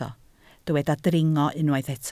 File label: "dringo", Welsh